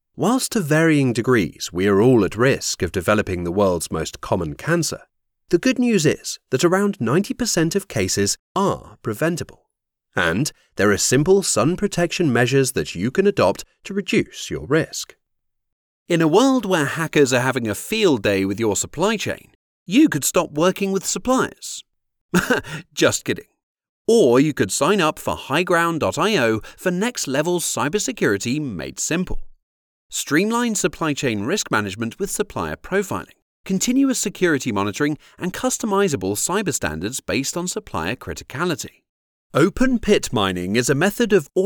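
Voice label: corporate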